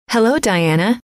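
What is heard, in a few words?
hello, female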